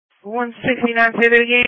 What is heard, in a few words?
Police Patrol 1 (Dispatch)